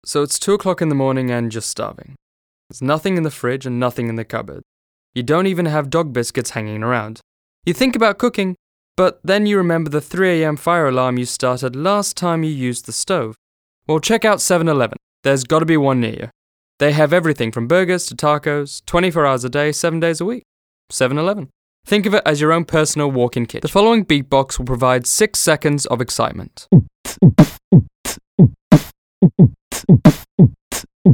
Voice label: voice demo; Actor, Voiceover; Location UK